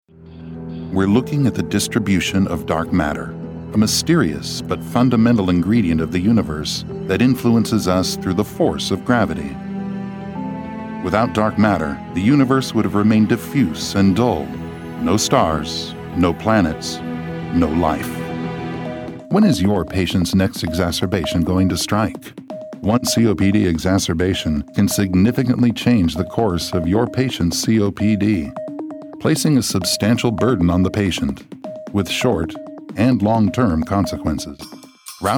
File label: Narration